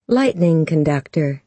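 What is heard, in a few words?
"lightning conductor", American English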